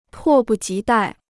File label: "迫不及待", Chinese